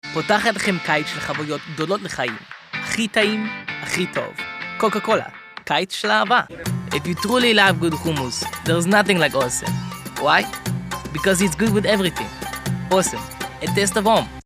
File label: Hebrew and Israeli Accent